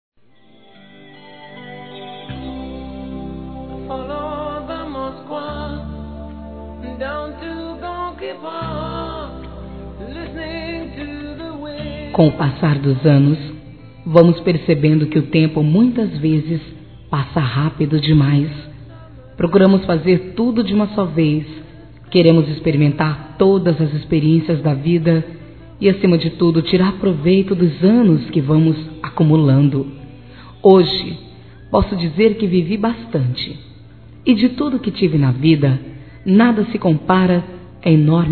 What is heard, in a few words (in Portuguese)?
Aniversário Neta Feminino